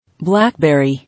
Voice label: "Blackberry", English

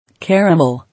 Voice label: "Caramel", English

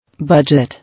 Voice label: "Budget", English

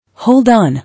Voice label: "Hold on", English

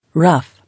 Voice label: "Rough", English

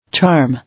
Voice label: "Charm", English

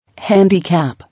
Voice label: "Handicap", English

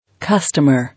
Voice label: "Customer", English

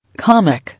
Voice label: "Comic", English

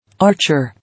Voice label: "Archer", English

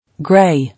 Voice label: "Grey", English

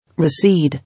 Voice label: "Recede", English

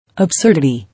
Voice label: "Absurdity", English

